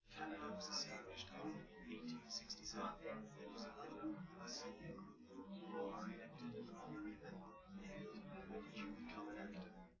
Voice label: male live rec 5cm sim; SASSEC07

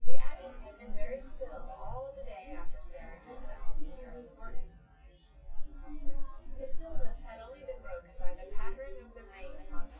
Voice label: female synth conv 5cm; SASSEC07

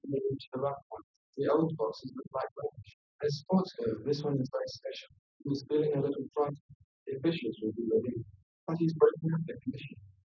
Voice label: male; SiSEC10; underdetermined

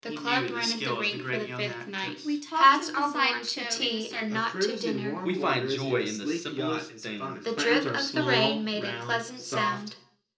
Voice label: office src1 0 src2 -10 16kHz; SiSEC08; SiSEC headgeometry

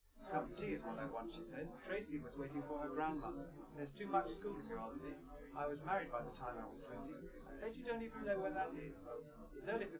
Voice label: male live rec; SiSEC10; underdetermined

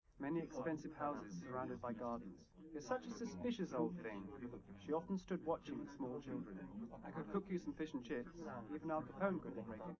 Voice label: male, live rec; SiSEC11; underdetermined

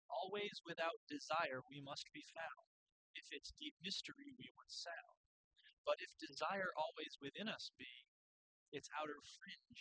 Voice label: male inst; SiSEC10; underdetermined

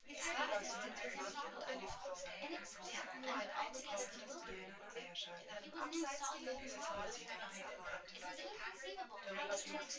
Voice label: female synthconv

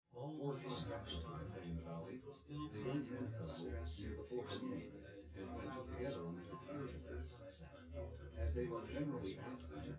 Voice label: male synth conv

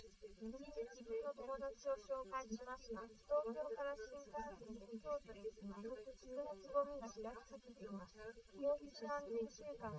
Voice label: female liverec; SiSEC11; underdetermined

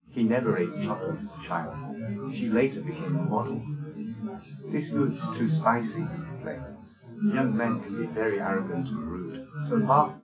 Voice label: male; SiSEC11; underdetermined

